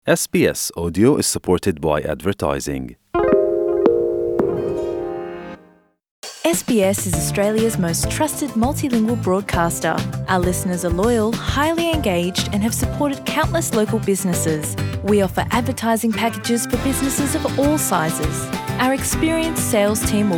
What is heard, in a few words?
slovak; sbs